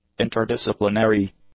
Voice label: "interdisciplinary", English; adjectives